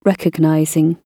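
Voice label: "recognizing", British English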